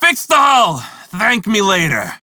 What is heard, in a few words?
Crew Fix Breach, Male; Dialog; en; Warframe; Railjack Crew; Red Veil